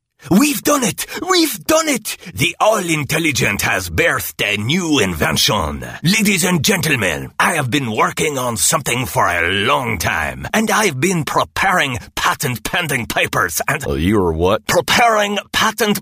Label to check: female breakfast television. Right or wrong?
Wrong